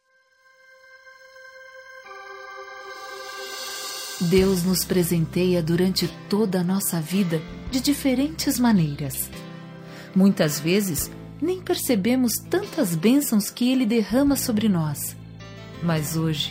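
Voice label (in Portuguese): Evangélica Aniversário de Irmao, female, telemensagens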